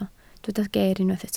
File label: "geer", Welsh